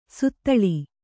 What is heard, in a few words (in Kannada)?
"ಸುತ್ತಳಿ", kannada